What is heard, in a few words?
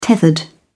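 "tethered", English, British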